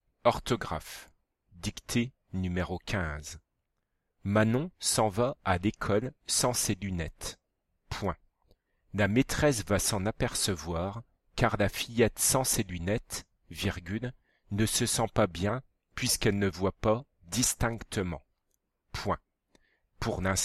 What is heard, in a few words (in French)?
dictee